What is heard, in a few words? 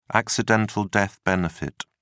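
"accidental death benefit", British English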